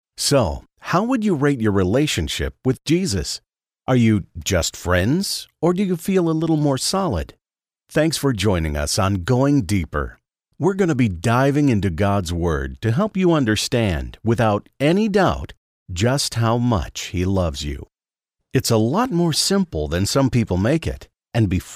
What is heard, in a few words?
Guy Voice